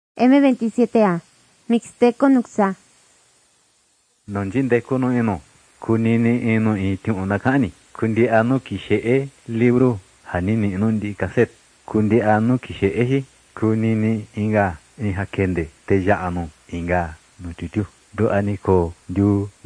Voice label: mixtec diagnostic spanish mexico

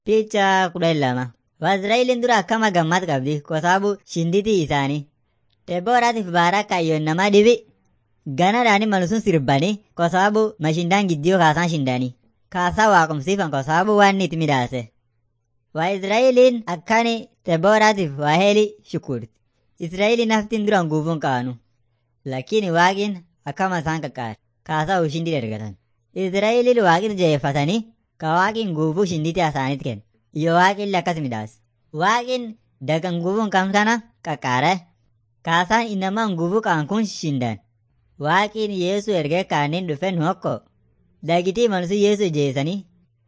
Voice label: afan munyoyaya

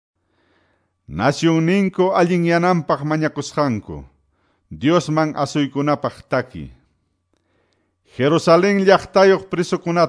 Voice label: salmos, quechua ayacucho

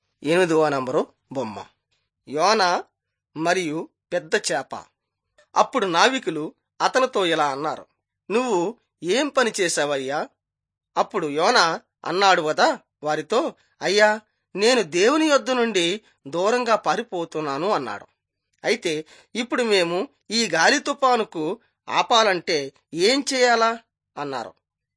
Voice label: telugu bangladesh; on trial for god

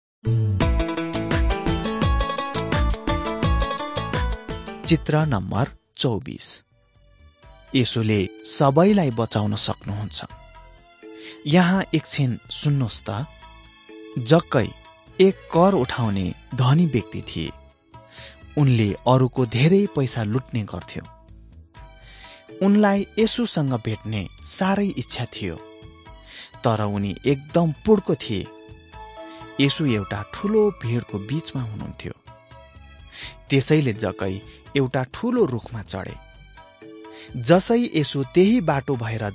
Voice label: jesus lord and saviour nepali

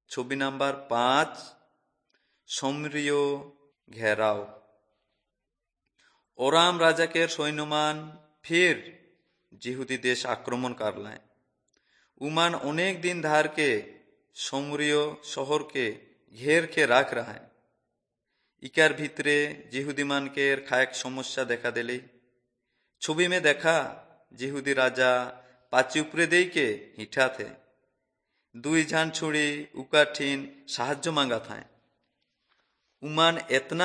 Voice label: urao, drama

